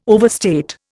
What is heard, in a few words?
"overstate", Indian